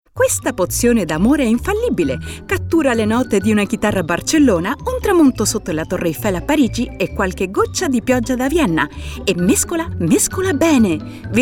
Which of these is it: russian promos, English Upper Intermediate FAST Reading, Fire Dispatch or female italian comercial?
female italian comercial